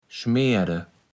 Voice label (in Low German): "schmärde", Platt